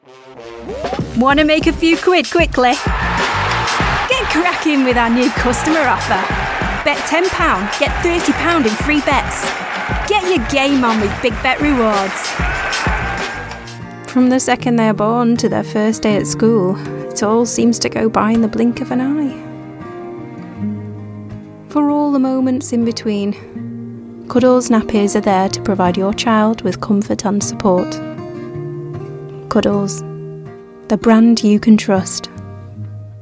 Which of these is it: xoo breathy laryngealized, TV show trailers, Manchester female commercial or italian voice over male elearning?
Manchester female commercial